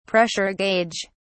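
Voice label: "pressure gauge", British English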